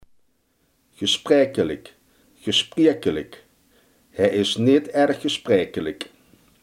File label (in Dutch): "spraakzaam", Limburgs